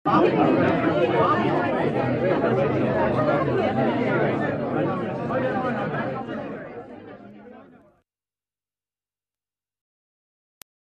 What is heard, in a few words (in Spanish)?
tambien gente hablando